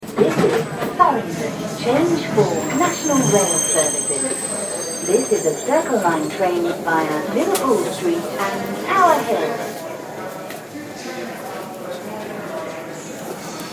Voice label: This Farringdon, Circle via Liverpool Street, Underground